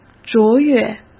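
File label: "卓越", Mandarin Chinese; hsk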